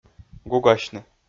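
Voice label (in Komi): "гугасьны", Komi, male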